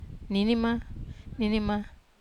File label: "nini", Wamesa